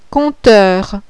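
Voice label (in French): "COMPTEUR", French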